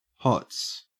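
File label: "hots", Australian English; pronunciations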